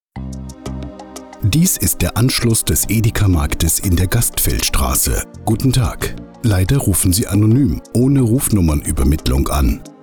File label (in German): Ansage